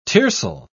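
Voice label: "tiercel", British English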